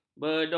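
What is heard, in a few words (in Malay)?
"beduk", Malay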